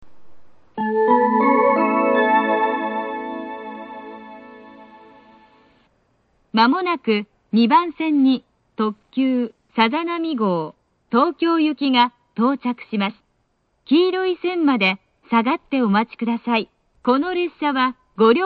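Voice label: platform 3 approaching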